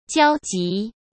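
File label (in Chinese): "交集", Mandarin Chinese; chinese flashcards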